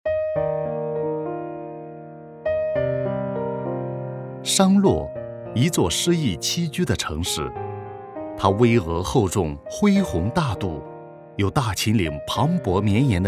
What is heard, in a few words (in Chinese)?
纪录人文历史沉稳